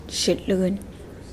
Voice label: Yapese vomit